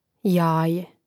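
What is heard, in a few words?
"jaje", Croatian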